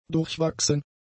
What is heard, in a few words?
"durchwachsen", german; adjectives